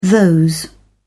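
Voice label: "those", British English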